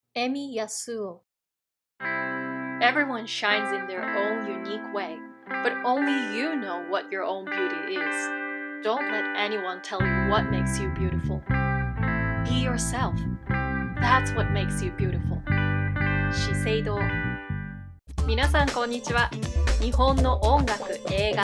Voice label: Voice Demo